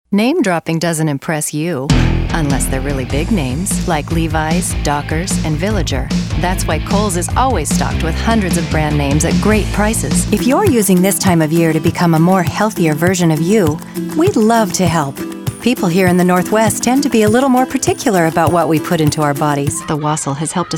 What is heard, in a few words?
Commercial